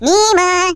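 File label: "Missed", Russian; hedgewars; Default ru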